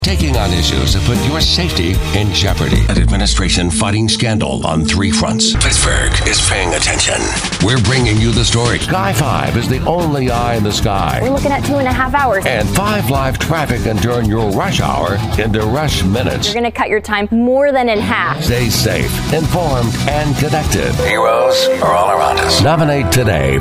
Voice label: radio male; radio imaging